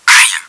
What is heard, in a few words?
ouch, french; csco; csgo; bot